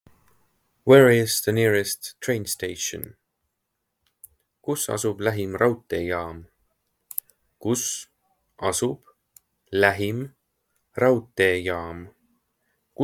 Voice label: Where is the nearest train station, Estonian phrasebook; the talking estonian phrasebook